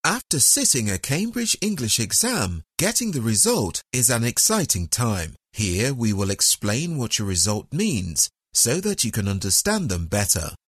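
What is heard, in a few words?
English, male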